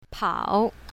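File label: "pao3", pinyin, south, female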